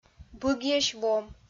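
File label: "быгйӧсь вом", Komi-Zyrian, female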